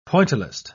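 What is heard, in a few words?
"pointillist", British English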